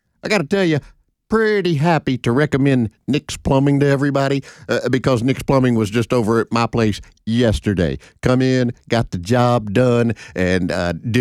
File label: RADIO AD; nicks plumbing radio ads